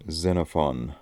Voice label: "Xenophon", pronounciations; Fourth Way; Beelzebub

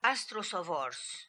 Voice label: "Astrosovors", Beelzebub pronounciations; Fourth Way

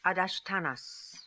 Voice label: "Adashtanas", pronounciations; Fourth Way; Beelzebub

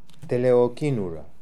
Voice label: "Teleoghinoora", pronounciations; Fourth Way; Beelzebub

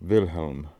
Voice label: "Wilhelm", pronounciations; Fourth Way; Beelzebub

